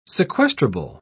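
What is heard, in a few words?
"sequestrable", British English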